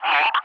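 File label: "Hawg", English; ATC callsign; DCS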